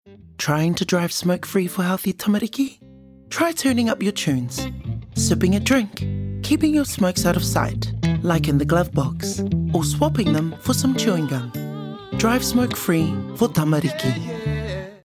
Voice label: RADIO; Drive Smokefee Tools